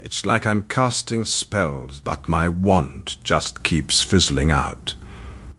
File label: upset; fertig classic games; zanthor